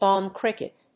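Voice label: "balm cricket", English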